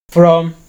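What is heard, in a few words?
"from", Nigerian Pidgin, male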